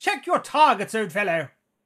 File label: battle chatter; SyrinScape; Syrinscape unplugged; Jungleplanet dinolaser battle